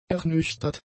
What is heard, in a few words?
"ernüchtert", German; adjectives